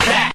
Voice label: tadow, group of men; ooh ahh